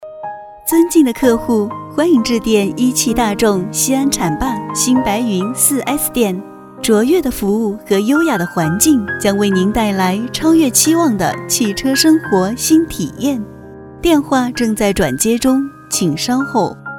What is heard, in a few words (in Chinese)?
彩铃, 国语女声配音; 一汽大众4S店